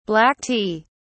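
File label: "black tea", British English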